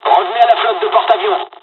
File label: evac, dialogs french; War Thunder